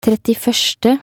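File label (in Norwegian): "trettiførste", Norwegian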